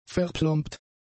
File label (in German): "verplombt", german; adjectives